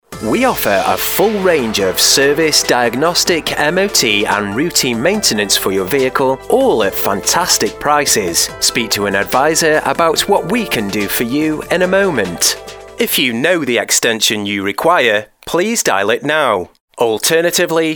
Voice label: On Hold, British Male Voice Over; On-Hold Showreel